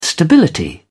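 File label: "stability", British English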